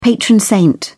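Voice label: "patron saint", British English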